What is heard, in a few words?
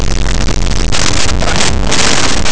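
"incapaz", Spanish; laboratori fonetica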